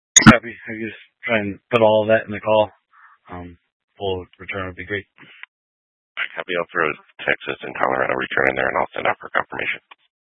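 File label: Troop 2A Dispatch, scanner audio recording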